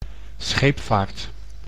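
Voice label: "scheepvaart", Dutch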